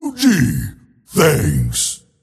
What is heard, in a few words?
tiny thanks; dota